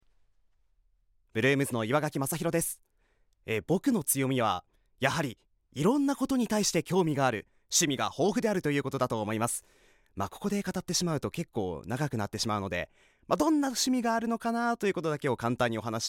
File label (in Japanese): フリートーク